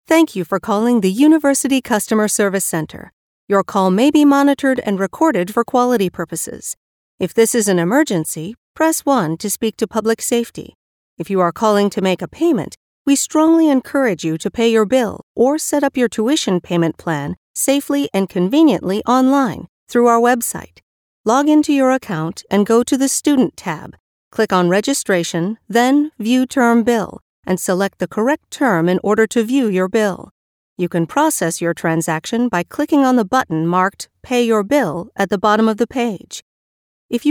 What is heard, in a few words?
us english ivr